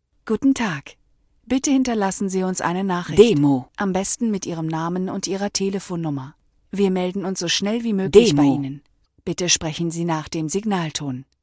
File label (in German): Ansage mit Hinweis und Rueckmeldung